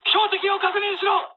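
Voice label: player friendly fire mood high, speech japanese; War Thunder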